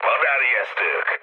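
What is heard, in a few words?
enemy detected, mood low, Hungarian; War Thunder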